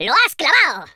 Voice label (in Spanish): "perfect", Spanish; speech